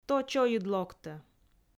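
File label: "то чойыд локтӧ", Komi, female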